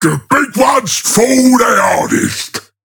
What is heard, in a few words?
Ork Destroy Enemy Battleship; Warhammer Ork; Warhammer 40000